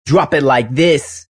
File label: Drop Like This, Male; Radio; VoiceBox